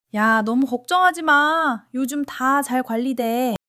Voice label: joy